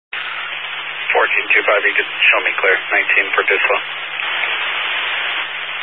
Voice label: Highway Patrol, Phoenix Metro West Area, scanner